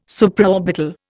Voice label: "Supraorbital", Indian